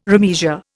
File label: "remeasure", Indian